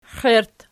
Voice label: xiert nom, archi